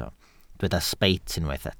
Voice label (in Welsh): "sbeit", Welsh